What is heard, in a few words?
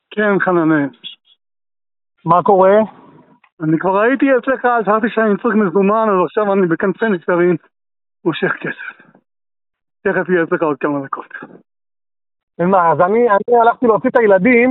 Call recording